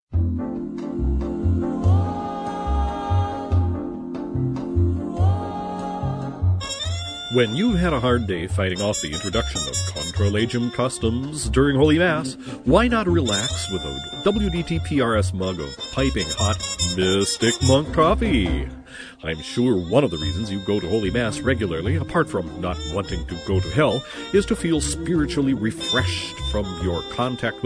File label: commercial